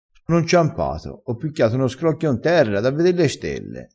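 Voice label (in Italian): "scrocchio", dialetto crespinese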